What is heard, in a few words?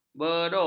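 "beruk", Malay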